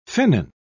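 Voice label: "finnan", British English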